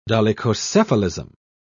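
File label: "dolichocephalism", British English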